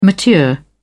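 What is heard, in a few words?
"mature", British English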